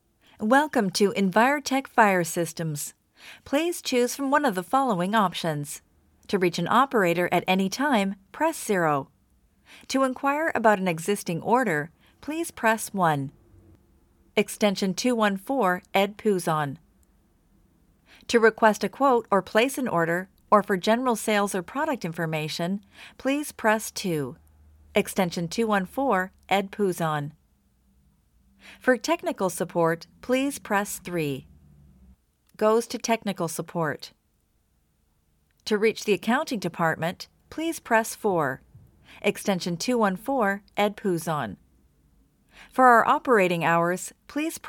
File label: female voice IVR prompts; Envirotech